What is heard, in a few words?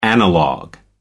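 "analog", British English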